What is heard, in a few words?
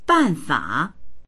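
"ban4fa3", Chinese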